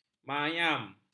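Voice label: "mayam", Malay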